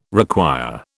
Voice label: "require", British

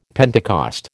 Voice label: "Pentecost", American

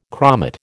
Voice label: "chromate", American

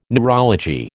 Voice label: "neurology", American

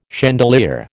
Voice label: "chandelier", American

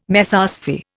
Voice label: "Mesosphere", Indian

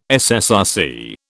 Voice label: "SSRC", British

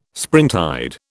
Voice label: "springtide", British

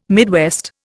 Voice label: "Midwest", Indian

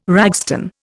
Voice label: "ragstone", Indian

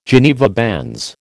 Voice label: "Geneva bands", American

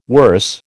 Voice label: "worse", American

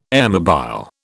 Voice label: "airmobile", British

